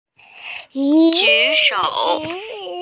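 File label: "raise hand", Mandarin; ju3shou3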